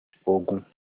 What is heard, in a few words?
war, Olukumi